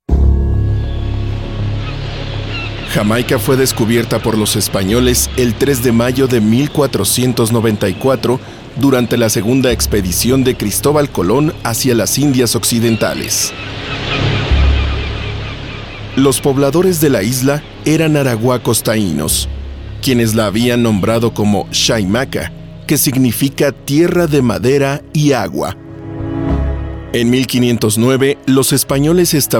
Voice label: spanish latin american narration